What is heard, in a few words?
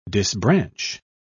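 "disbranch", British English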